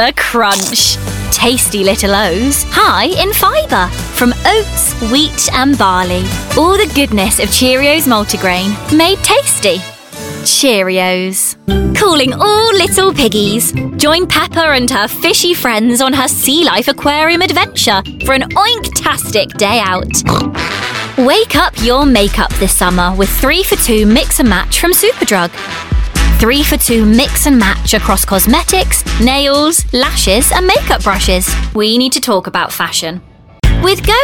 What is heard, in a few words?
Commercials, west-country